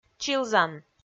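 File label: "чилзан", Komi, female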